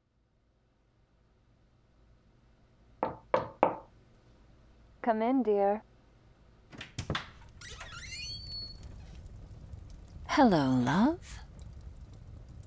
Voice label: Soothed by your Siren Girlfriend ASMR Roleplay, Female x Listener, Relaxation